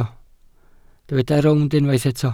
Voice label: "rownd", Welsh

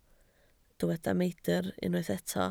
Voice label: "meidr", Welsh

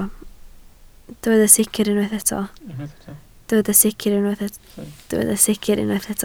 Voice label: "sicr", Welsh

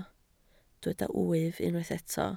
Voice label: "wyf", Welsh

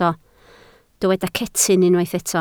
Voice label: "cetyn", Welsh

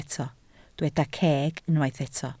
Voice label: "ceg", Welsh

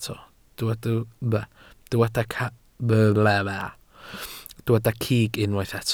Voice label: "cig", welsh

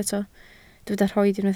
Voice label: "rhoid", Welsh

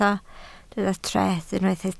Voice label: "treth", Welsh

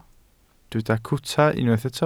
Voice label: "cwta", Welsh

